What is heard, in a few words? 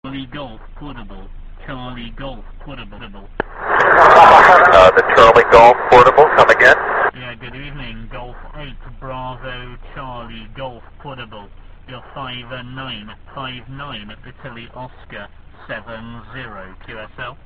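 amateur radio contact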